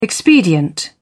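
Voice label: "expedient", British English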